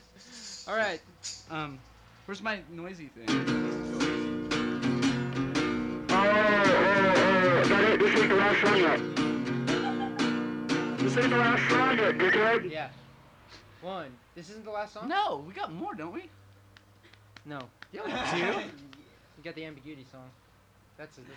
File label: Banter; Background Actors